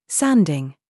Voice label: DRESS TRAP, minimal pairs